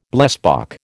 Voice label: "blesbok", American

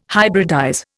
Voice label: "hybridize", Indian

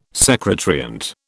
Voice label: "secant", British

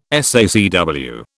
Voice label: "SACW", British

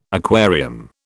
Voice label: "aquarium", British

